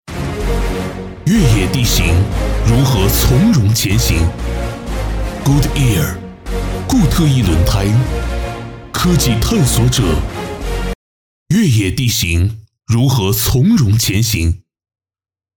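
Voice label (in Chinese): 广告片轮胎; 固特异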